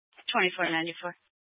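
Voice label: Sheriff Dispatch, Scanner audio recording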